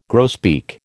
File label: "grosbeak", American